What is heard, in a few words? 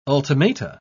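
"ultimata", British English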